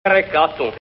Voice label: "recattu", genovese; re'kattu/ (ordine)

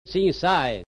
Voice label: "sinsâe", genovese; siN'sa:e/ (zanzare)

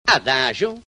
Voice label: "adâxu", genovese; a'da:Zu/ (adagio!)

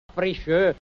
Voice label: "frisciœ", genovese; fri'Sø:/ (frittella)

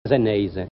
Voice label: "zeneize", genovese; ze'nejze/ (genovese)

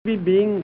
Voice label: "bibin", genovese; bi'biN/ (tacchino)

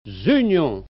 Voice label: "zygnu", genovese; 'zyJJu/ (giugno)

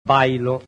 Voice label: "báilu", genovese; 'bajlu/ (baule)

